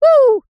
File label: "woo", cartoon character high pitched